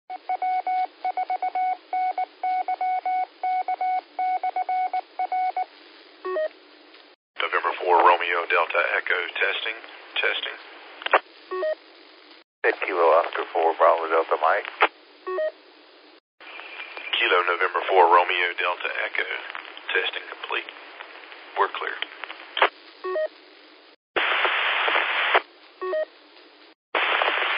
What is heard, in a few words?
qso rec simplex logic